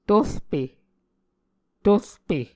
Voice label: "turpe", muscogee

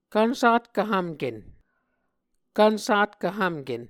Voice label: "kvnsatkv hvmken", Muscogee

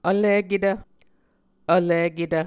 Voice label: "vliketv", Muscogee

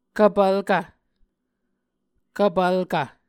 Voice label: "kvpvlkv", Muscogee

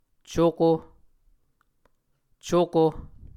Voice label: "cuko", Muscogee

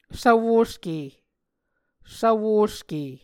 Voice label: "svwoske", Muscogee

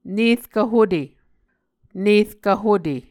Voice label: "nerkv hute", Muscogee

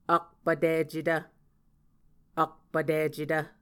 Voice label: "akpvticetv", muscogee

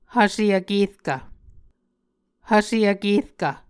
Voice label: "hvse vkerkv", Muscogee

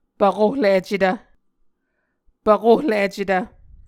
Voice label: "pvkohlicetv", Muscogee

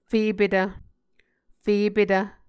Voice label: "fepetv", muscogee

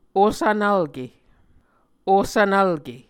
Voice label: "osvnvlke", Muscogee

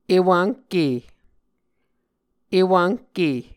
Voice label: "ewvnhke", muscogee

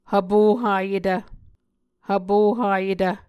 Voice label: "hvpo hayetv", Muscogee

